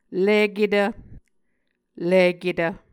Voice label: "liketv", Muscogee